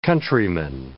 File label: "countryman", English